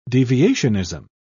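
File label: "deviationism", British English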